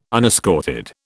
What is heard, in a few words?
unescorted, British